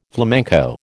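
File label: "flamenco", American English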